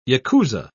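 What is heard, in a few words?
"yakuza", British English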